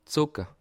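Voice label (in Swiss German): "socke", Bas Rhin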